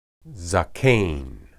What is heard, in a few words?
"zaken", Hebrew